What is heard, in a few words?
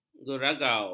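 "geragau", Malay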